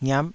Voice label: "ngiap5", Taiwanese syllable

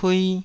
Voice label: "pui2", taiwanese

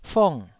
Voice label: "fong4", Taiwanese

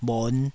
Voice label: "bhon5", taiwanese Syllables

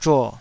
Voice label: "zhuo3", taiwanese

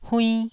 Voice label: "huinn1", Taiwanese syllable

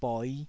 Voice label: "boi5", taiwanese syllable

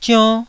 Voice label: "zionn1", taiwanese

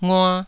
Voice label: "ngua2", taiwanese syllables

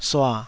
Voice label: "sua3", Taiwanese syllable

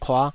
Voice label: "kuah5", taiwanese syllables

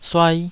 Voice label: "suai2", taiwanese

